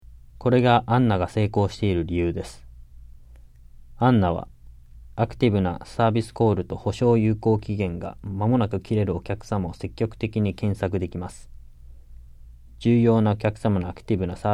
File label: JAPANESE; delltranslations